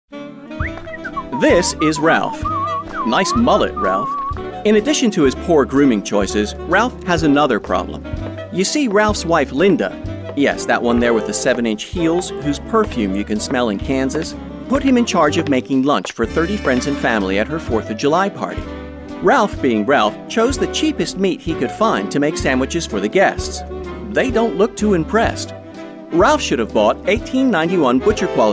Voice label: mid atlantic explainer